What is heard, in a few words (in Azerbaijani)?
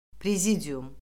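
"prezidium", Azerbaijani; Orfoqrafiya Lüğəti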